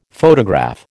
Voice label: "photograph", American